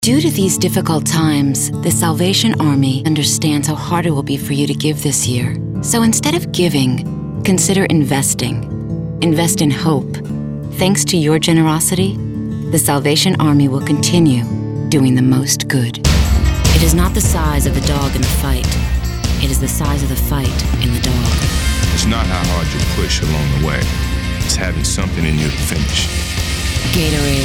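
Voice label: Commercial VO, female voices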